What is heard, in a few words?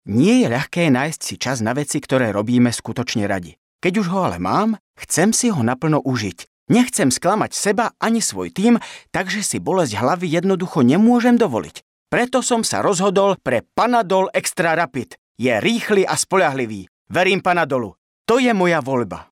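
Male Slovak commercial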